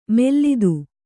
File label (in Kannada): "ಮೆಲ್ಲಿದು", Kannada